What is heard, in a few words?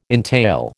"entail", American